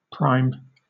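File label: "prime", British English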